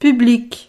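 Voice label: "public", French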